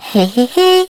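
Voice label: Turret pickup, French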